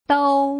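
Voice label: "dou", Putonghua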